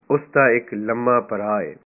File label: he has a tall brother, punjabi